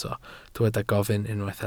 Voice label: "gofyn", Welsh